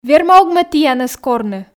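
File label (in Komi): верма-ог ме тіянӧс корны, Komi, female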